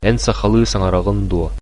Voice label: do you speak, sakha

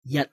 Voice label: cantonese, numbers

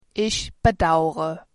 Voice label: sorry, german

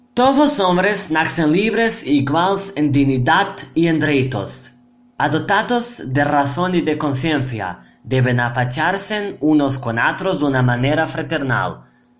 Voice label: Aragonese

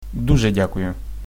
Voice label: thanks, ukrainian

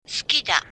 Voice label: i love you, japanese

